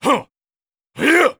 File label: VO light slash